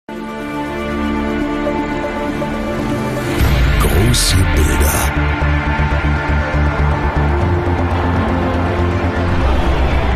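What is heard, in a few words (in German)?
Werbung, Kraftvoll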